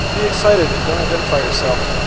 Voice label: Noisy Speech F16 Noise LogMMSE 0dB SNR

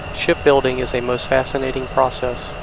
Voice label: Noisy Speech F16 Noise

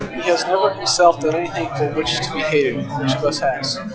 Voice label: Enhanced Speech Babble Noise LogMMSE 0dB SNR

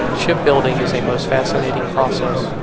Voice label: Noisy Speech Babble Noise LogMMSE 0dB SNR

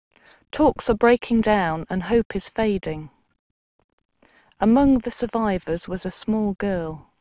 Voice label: female full mirror

